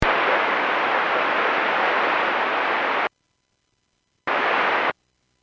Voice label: Airports 122.7, Radio; KSTE

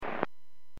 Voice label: Aviation 122.7; KSTE

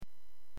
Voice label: Aviation 121.5 Emergency

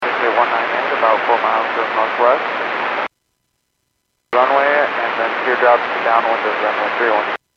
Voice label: Airports 122.7, Radio; KSTE

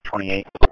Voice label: Police Sheriff Dispatch

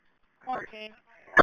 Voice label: Police Sheriff Dispatch

